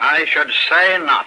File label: "i should say not", male; Voice